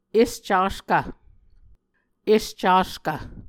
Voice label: "escaskv", Muscogee